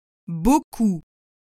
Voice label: "beaucoup", French